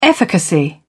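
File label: "efficacy", British English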